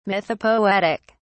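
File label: "mythopoetic", British English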